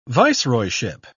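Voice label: "viceroyship", British English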